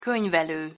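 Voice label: "konyvelo", Hungarian pronunciation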